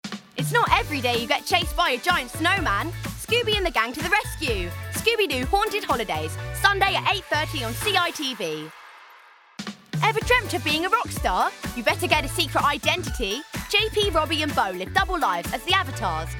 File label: continuity; CITV